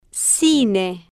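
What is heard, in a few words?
"cine", Spanish, female, slow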